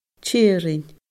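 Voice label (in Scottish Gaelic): "tearainn", Scottish Gaelic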